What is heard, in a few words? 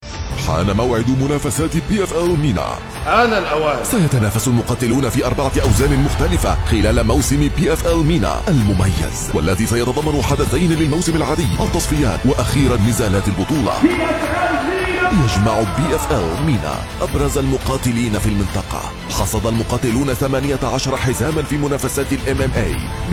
Arabic MSA VO; PFL MENA